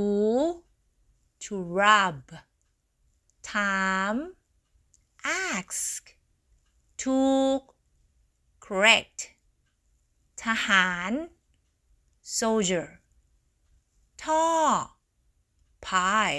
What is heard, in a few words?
Learning Thai words